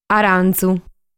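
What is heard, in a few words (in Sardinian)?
"Arantzu", Sardinian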